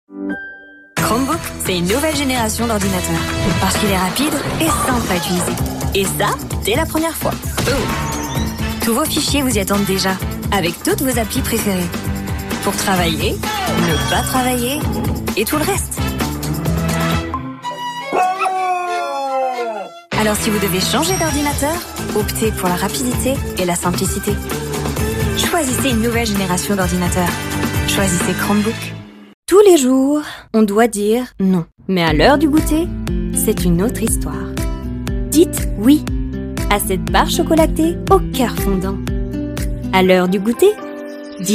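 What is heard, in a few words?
French, Commercial; GoLocalise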